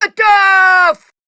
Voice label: Attack, Grineer Queens Guards, Battle Barks, en; Warframe

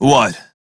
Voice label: Crew Greet, Male; Dialog; en; Warframe; Railjack Crew; Red Veil

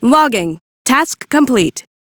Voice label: Crew Task Done, female; Warframe; Railjack; Cephalon Suda

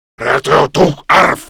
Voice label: Cover Me, Grineer Male; Warframe; Battle Barks

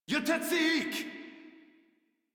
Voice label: Cover Me, Modular Corpus Male; Warframe

